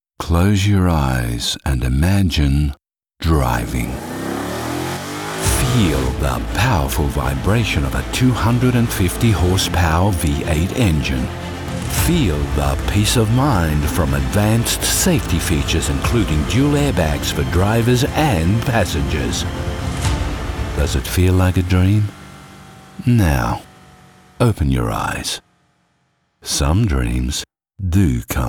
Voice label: Australian Male Voice Over; Dream Car